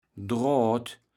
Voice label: "Droat", kaevels platt

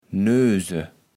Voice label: "nöse", kaevels platt

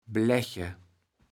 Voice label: "Bläche", kaevels platt

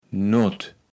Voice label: "Noet", kaevels platt

